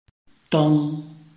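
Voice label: "deng", Chinese, tone 1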